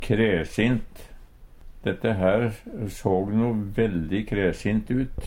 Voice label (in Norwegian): kræsint, Numedalsmål; Adjektiv